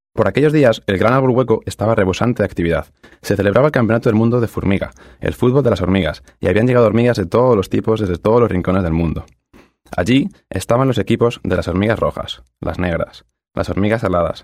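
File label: Spanish EU, male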